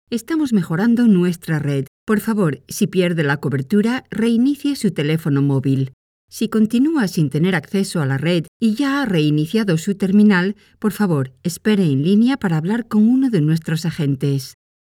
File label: spanish ivr; comédienne professionnelle de doublage espagnole